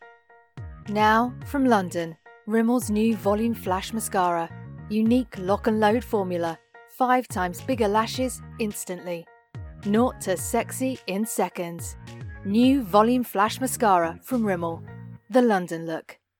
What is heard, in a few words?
music mono; rimmel